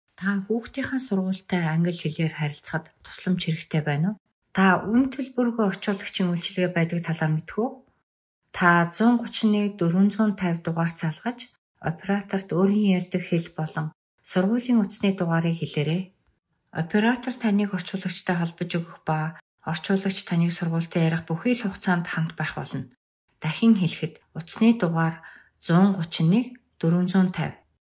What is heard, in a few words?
mongolian, audio message